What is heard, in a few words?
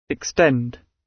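"extend", British English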